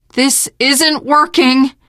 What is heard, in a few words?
reaction negative, teen girl; source engine; left 4 dead 2; survivor